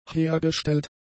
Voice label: "hergestellt", German; adjectives